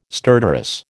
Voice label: "stertorous", American